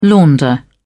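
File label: "launder", British English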